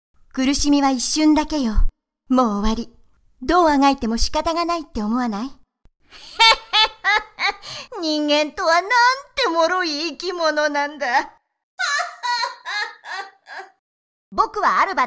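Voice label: Japanese game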